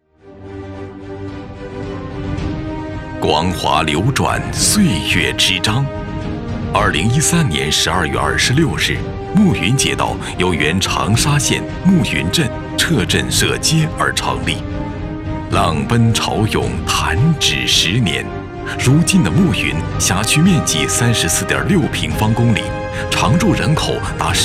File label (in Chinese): 街道 汇报片 沉稳 讲述; 十大精彩瞬间